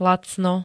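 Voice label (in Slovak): "lacno", Slovak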